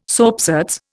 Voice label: "soapsuds", Indian